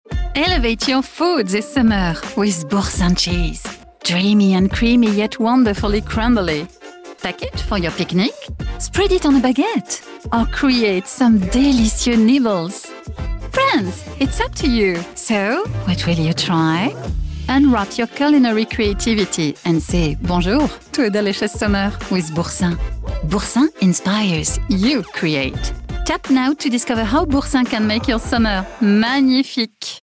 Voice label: pub accent anglais voix off; boursin

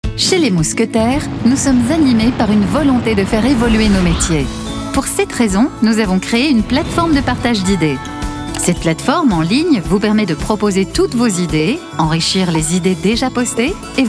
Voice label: voix off femme vidéo motion design; French; les mousquetaires

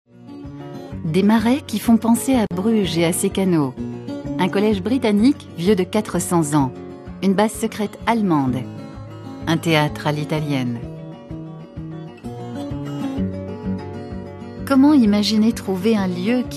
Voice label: commentaire; saint omer; docu

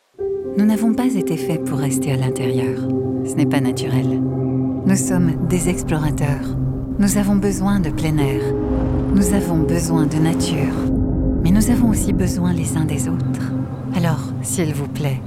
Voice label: pub voix off; madeira; madere

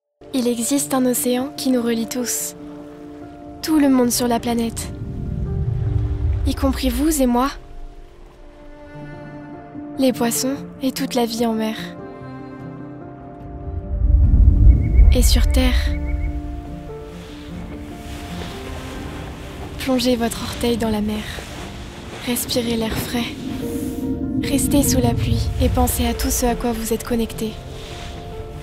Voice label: voix off enfant; the ocean race

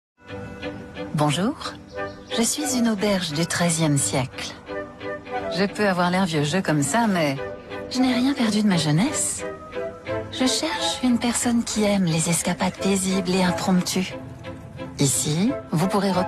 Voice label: voix off pub; hotels point com

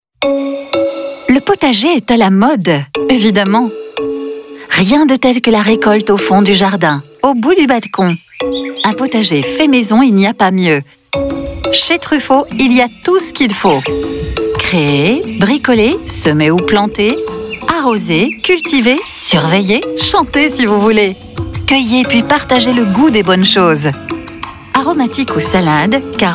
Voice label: habillage instore; truffaut

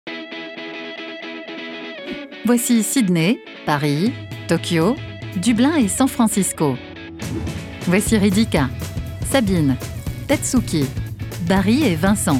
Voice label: film institutionnel; workday

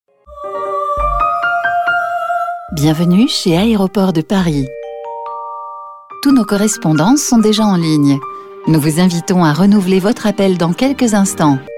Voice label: attente telephonique aeroport paris; adp

